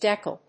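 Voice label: "DECKLE", English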